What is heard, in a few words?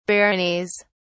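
"baronies", British English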